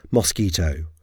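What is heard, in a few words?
"mosquito", English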